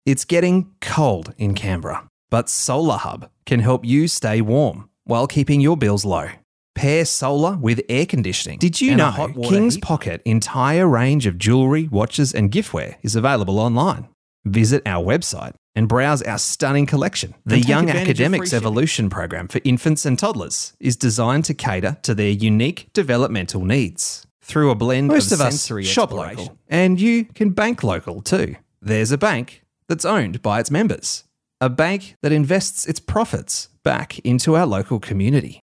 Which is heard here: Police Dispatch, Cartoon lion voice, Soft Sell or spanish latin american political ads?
Soft Sell